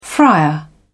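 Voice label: "friar", British English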